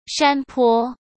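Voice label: "山坡", Mandarin Chinese; chinese flashcards; hsk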